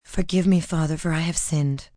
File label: Forgive Me, Church, prayer, female; Voice Box